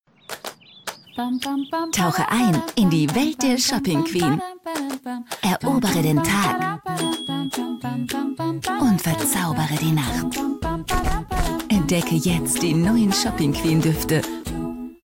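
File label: female, German, commercial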